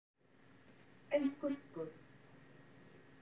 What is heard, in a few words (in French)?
arabe